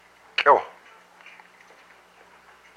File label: "porcupine s quill", hupa